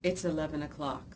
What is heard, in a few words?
female neutral